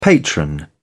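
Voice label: "patron", British English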